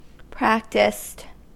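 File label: "practiced", US English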